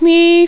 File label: FEMALE